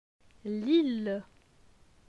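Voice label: "Lille", French; phonetique; les consonnes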